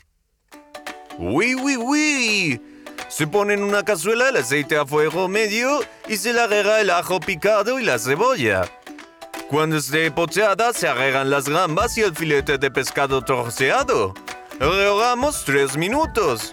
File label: French Accent